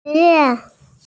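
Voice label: "ci2yu3" Mandarin Chinese, female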